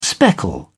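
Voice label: "speckle", English, British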